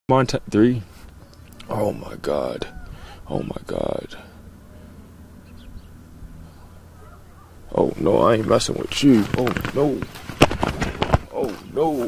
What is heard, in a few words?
reporting yellowstone national park herd of bison